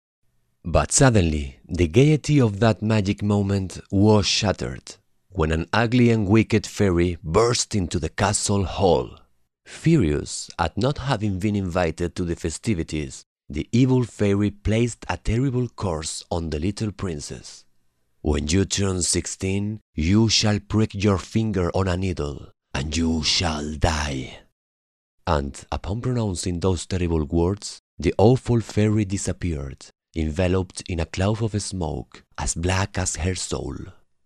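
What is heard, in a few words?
Spanish Spain male voice over, English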